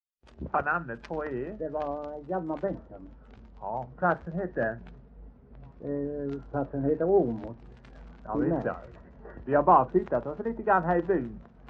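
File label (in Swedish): Kinnared Halland, dialekter, svenska sagor; Folkminnen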